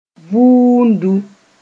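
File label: "vuundu", Mossi; soot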